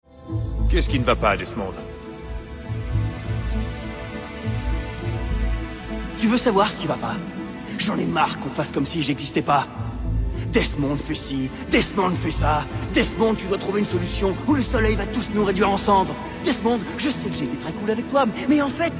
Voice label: french video games

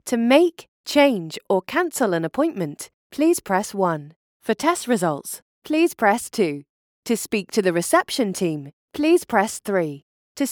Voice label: english british ivr

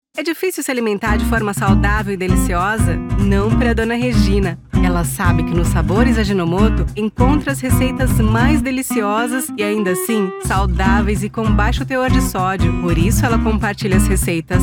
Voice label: portuguese brazilian tv shows

